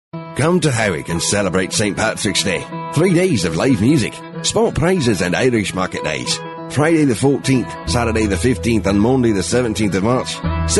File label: male US retail